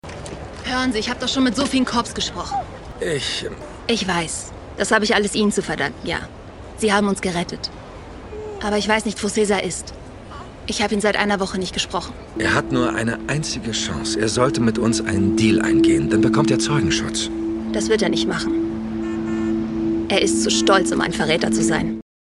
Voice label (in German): Synchron Polizeiserie Befragung defensiv